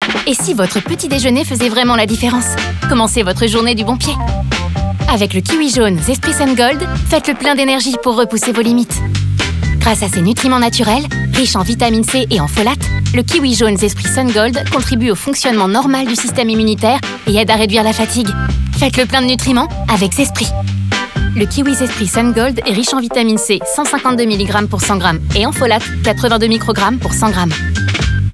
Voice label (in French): SPOT RADIO, sportif; AUDION; ZESPRI